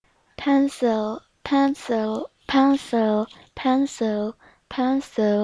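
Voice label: "pencil", spoken word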